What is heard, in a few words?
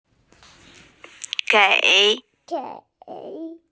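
"gei3", Mandarin Chinese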